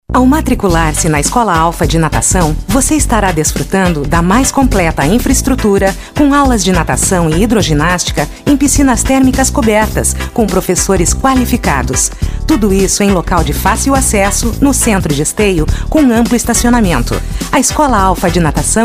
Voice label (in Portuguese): loc fem, Locuções Publicitárias; Rádio, VT, audiovisual, espera telefônica; Criativa Áudio Produtora